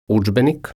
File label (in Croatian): "Udzbenik", croatian